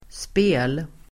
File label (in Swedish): "spel", Swedish